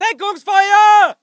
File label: voice ger infantry